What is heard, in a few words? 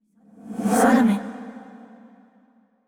neutral short; yamiko